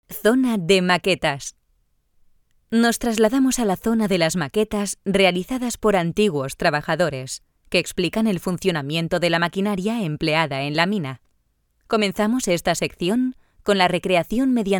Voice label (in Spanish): audioguia; audioguia museo de la mineria; esp